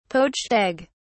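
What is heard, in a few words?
"poached egg", British English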